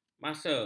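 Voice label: "masa", Malay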